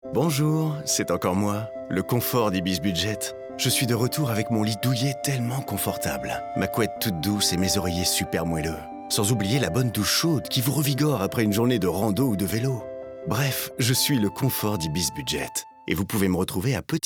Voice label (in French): Spot Radio; Ibis Budget; LOISIRS